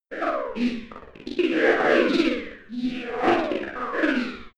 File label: robot talking droid